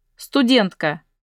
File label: student female